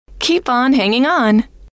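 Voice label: keep on hanging on, female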